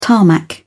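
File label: "tarmac", British English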